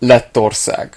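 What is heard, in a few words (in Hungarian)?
"latvia", Hungarian; gcompris; geography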